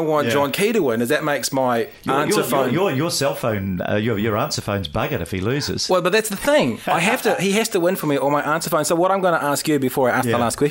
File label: answerphone message